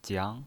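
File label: "jiang", second tone, pinyin voice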